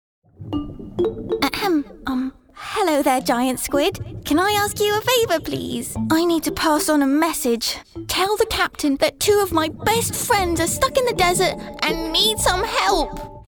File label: english british animation